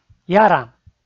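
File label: "яра", Komi, male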